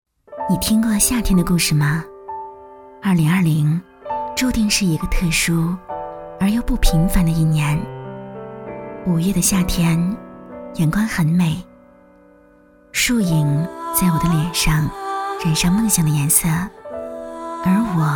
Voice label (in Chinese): 女 旁白; 心路历程